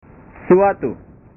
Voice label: "suatu", bahasa

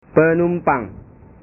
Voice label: "penumpang", bahasa

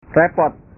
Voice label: "repot", bahasa

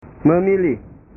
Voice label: "memilih", bahasa

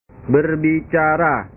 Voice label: "berbicara", bahasa

